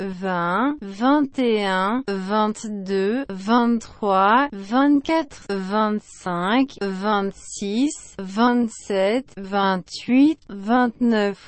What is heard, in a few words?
french